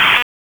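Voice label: Police Northwest District Tac, radio; Raleigh; Tac 1; p25; wake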